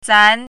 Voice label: "zan2", Chinese pinyin